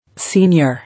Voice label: "Senior", English

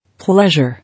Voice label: "Pleasure", English

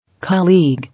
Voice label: "Colleague", English

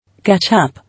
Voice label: "Ketchup", English

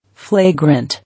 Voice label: "Flagrant", English

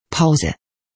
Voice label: "Pause", English

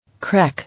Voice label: "Crech", English

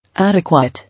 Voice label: "Adequate", English

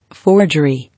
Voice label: "Forgery", English

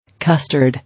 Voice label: "Custard", English

